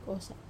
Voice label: "kosa ko", wamesa; bamboo